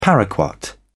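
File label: "paraquat", British English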